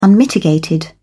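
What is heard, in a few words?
"unmitigated", British English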